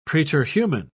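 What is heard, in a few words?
"preterhuman", British English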